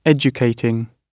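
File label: "educating", British English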